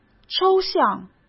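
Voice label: "抽象", Mandarin Chinese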